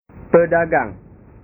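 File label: "pedagang", Indonesian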